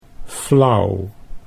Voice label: "flauw", Dutch

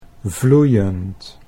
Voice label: "vloeiend", Dutch

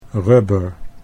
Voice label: "rubber", Dutch

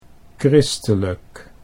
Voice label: "christelijk", Dutch

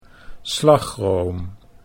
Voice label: "slagroom", Dutch

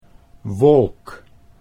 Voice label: "wolk", Dutch

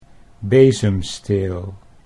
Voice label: "bezemsteel", Dutch

